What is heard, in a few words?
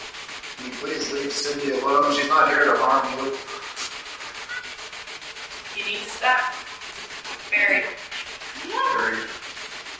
Basement Laundry Asylum Spirit Box; madison seminary investigation